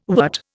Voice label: "wort", Indian